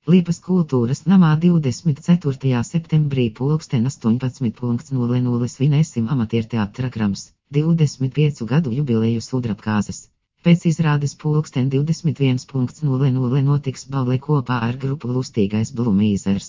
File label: Synthesized Audio